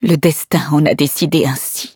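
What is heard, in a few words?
defeat, French; cookie run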